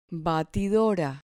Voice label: "batidora", Spanish